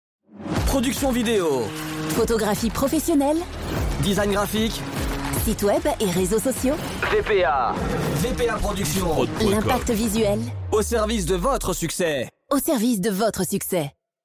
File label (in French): Jingle Audio a l'image; VPA Productions